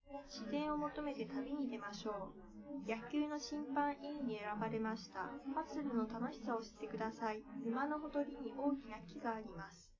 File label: female live rec 5cm sim; SASSEC07